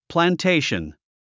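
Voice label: "plantation", English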